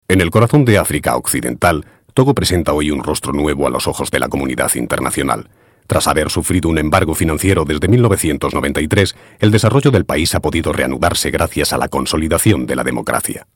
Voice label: news